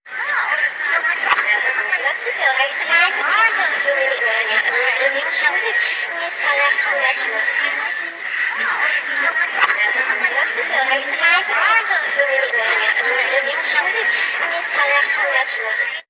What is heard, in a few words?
Vengeful Whispers Crazy Chat